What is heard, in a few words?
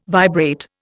"vibrate", Indian